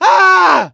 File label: hurt critical, voice; gambler